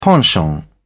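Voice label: "penchant", English, British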